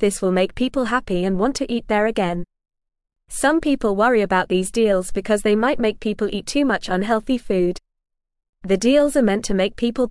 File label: English Beginner FAST Reading; Fast Food Places Offer Deals to Attract Customers